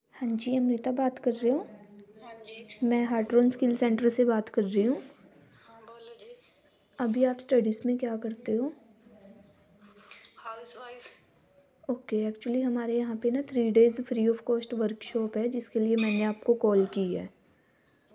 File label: call recording